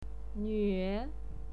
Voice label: "nuue3", pinyin

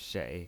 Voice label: "shei3", pinyin

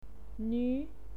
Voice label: "nuu2", pinyin

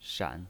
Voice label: "shan3", pinyin

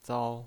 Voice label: "zao1", pinyin

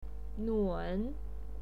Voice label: "nun3", pinyin

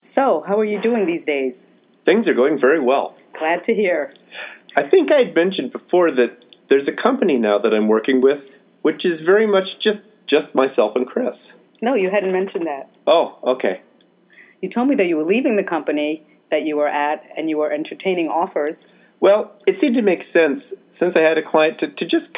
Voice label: english

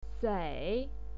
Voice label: "sei3", pinyin

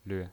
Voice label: "lve0", pinyin

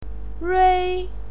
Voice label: "rei1", pinyin

